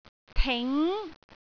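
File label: "ting2", Cantonese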